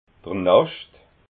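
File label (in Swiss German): "nascht", Alsatian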